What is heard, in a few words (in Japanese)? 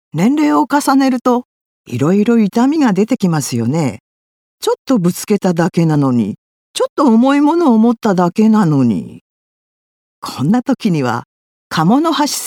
薬品CM